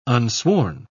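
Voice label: "unsworn", British English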